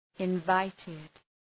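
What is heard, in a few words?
"invited", English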